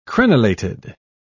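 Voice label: "crenelated", British English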